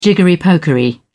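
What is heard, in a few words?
"jiggery-pokery", British English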